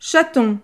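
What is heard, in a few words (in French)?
"chaton", French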